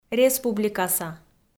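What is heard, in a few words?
"республикаса", Komi, female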